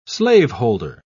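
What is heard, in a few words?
"slaveholder", British English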